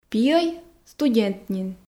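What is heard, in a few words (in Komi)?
пиӧй студент нин, Komi, female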